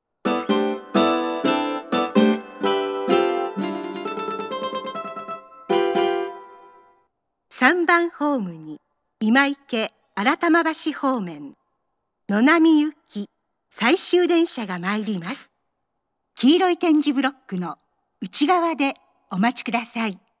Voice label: 接近-野並行き（最終）, 名古屋市営地下鉄 桜通線 丸の内駅 駅放送

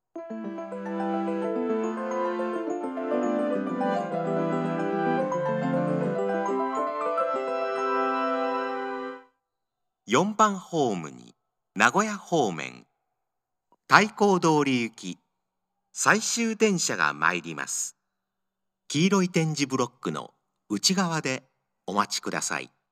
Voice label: 接近-太閤通行き（最終）, 名古屋市営地下鉄 桜通線 丸の内駅 駅放送

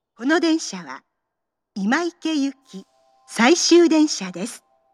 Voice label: 到着-今池行き（最終）, 名古屋市営地下鉄 桜通線 丸の内駅 駅放送

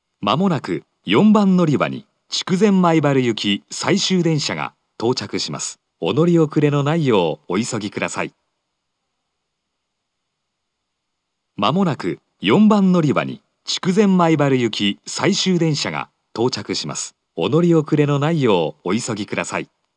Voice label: 最終予告-筑前前原行, 4番のりば放送; 福岡市地下鉄 空港線・箱崎線 中洲川端駅

